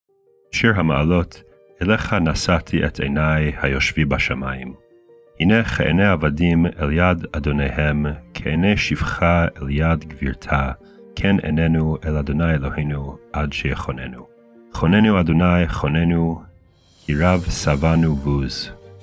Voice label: poetry and prayer psalm hebrew